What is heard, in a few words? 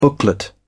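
"booklet", British English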